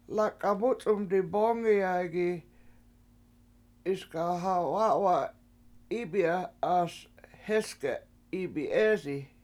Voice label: "lAk7a7 mU7c7um dibOngiyay7gi 7iSga ha7wA7wa7 7IIbi7aS hEsge7 7ibi7EEsi", washo; I Invited Ten But Eight Came And Two